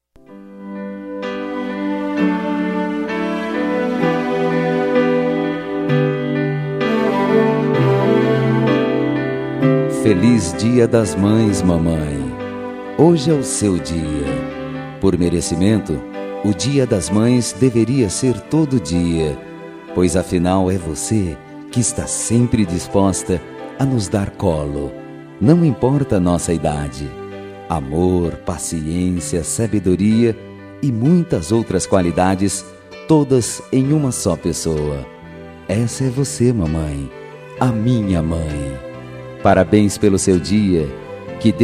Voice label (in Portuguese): Dia das Maes, M